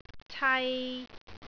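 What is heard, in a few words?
"cai3", Cantonese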